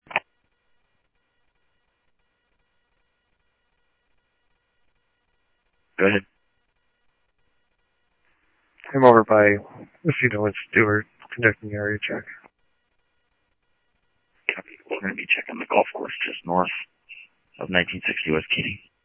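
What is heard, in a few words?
Mesa Police Department, scanner audio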